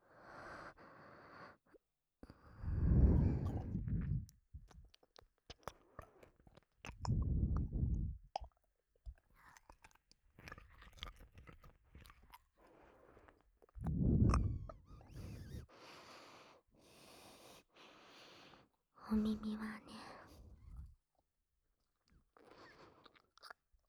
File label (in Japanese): まずは手コキのお勉強♪ 【耳舐め_乳首責め_超密着言葉責め_寸止め手コキ_吐息】, ASMR